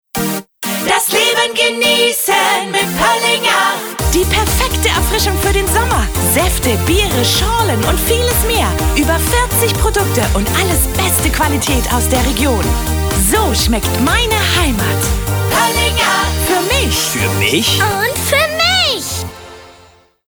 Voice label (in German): RADIOSPOTS